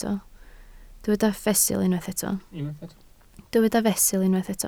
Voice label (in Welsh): "fesul", Welsh